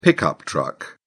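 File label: "pickup truck", British English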